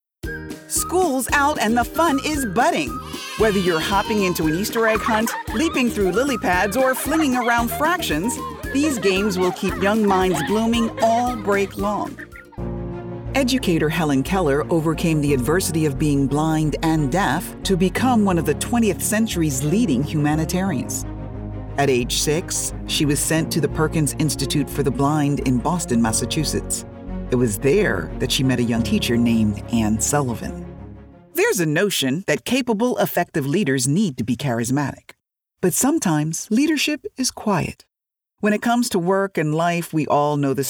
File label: Narration